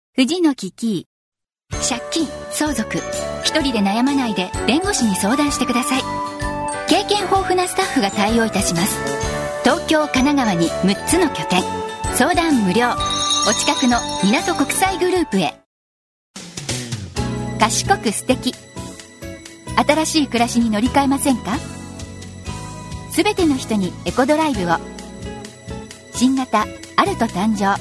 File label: female